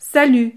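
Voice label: "salut", French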